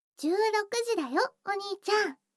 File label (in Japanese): 時報16時; 全肯定ロリママのあまあまでえっちな添い寝; いもおかASMR